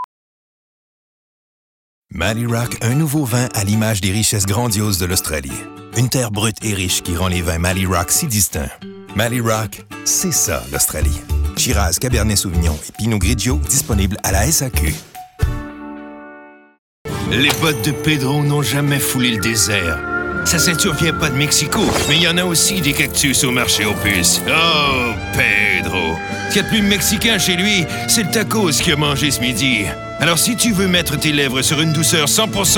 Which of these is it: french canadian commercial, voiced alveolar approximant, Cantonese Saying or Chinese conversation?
french canadian commercial